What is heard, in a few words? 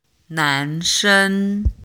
chinese pronunciation an ang